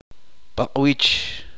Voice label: uspanteko ojo

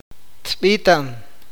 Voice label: "escuchar", awakateko

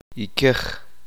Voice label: uspanteko hacha

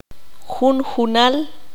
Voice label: "uno por uno", qanjobal

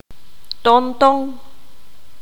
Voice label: "Lechuza bruja", qanjobal

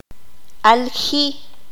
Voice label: "dar a luz", Q'anjob'al

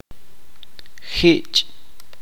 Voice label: "mojado", chuj

